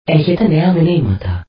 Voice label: Mail Greek